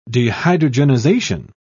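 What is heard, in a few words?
"dehydrogenization", British English, dictionary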